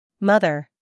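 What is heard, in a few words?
"mother", American English, female; everyone-can-use-english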